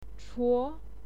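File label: "chuo", Mandarin Chinese, second tone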